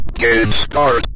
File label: "game start", Synth Voice; Kenney's Sound Pack; Synth Voice 1